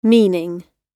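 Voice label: "meaning", British English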